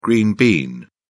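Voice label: "green bean", British English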